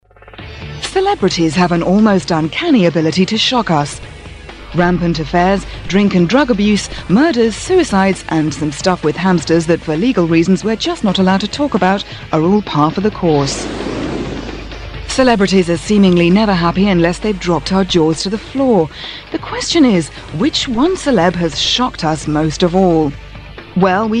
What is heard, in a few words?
Documentary Narration Shocking celebs